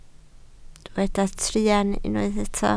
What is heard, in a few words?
"truan", Welsh